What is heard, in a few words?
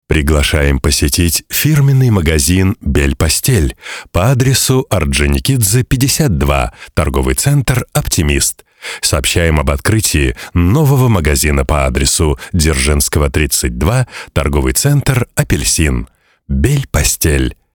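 Russian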